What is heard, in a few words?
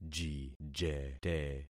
"jijete", concat syllables; Affixing experiment